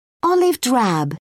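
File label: "olive drab", British English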